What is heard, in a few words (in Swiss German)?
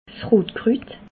"rotkrut", Alsace